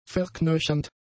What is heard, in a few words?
"verknöchernd", German; adjectives